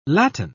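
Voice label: "latten", British English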